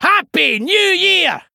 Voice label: Male Dwarf HAPPY NEW YEAR LUNAR